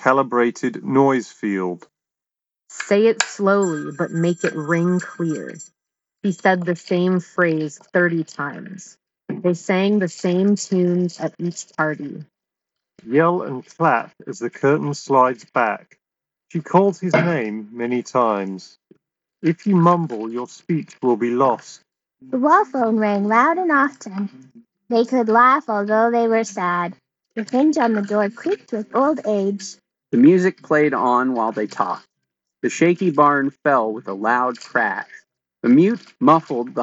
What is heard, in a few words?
open mic OFFICE; Sony linkbuds